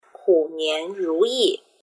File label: "hunianruyi" Chinese